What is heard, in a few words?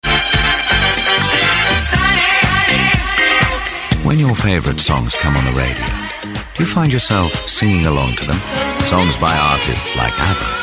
Commercial; Easy; Vocal Point